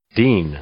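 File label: "dean", English; noun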